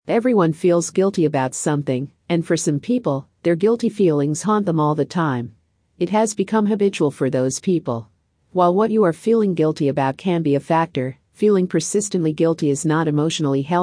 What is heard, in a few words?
Feeling Guilty Female Audio